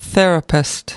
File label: "therapist", us